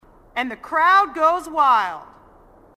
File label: marine female, sassy